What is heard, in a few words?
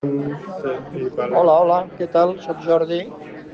Voice message